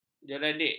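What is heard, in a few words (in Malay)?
"jeradik", Malay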